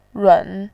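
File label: "run", Mandarin Chinese, third tone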